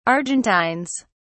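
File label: "argentines", British English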